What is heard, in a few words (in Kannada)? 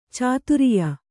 "ಚಾತುರಿಯ", Kannada